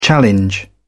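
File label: "challenge", British English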